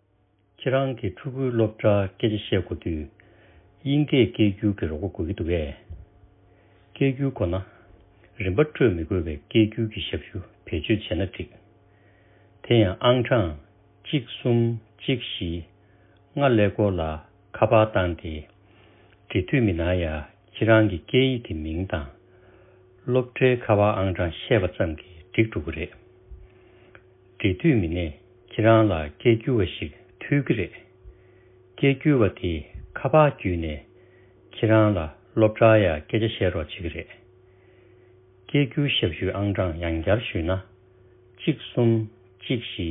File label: tibetan; public schools; going to a public school